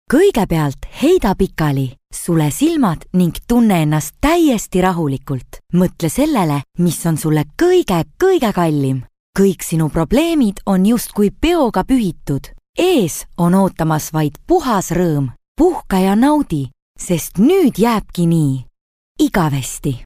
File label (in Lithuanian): (MOT) Estų balsas; moteris